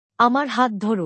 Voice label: "Hold my hand", Bangla; Basic Greetings and Courtesy